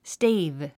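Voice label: "stave", English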